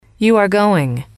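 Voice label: you are going, female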